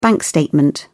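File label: "bank statement", British English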